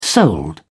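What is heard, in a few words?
"sold", English, British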